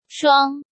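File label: "Shuāng", Chinese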